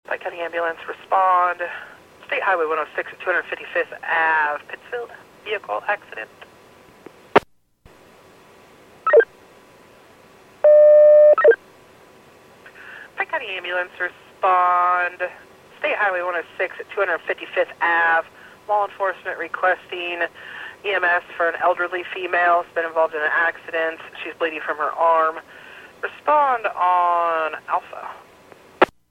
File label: PAGING